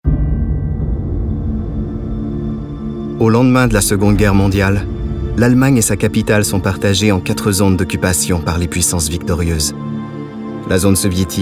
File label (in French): Narration